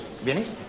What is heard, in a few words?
Voice Acting es M